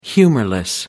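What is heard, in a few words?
"humorless", English, British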